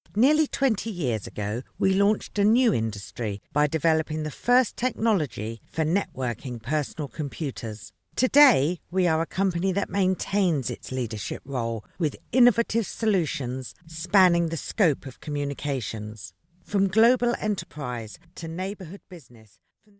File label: English United Kingdom